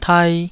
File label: "tai2", taiwanese Syllables